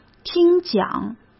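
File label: "听讲", Mandarin Chinese; chinese-flashcards; hsk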